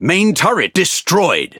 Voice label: Speech Defective Modules Main Gun Destroyed, EN GB; World Of Warships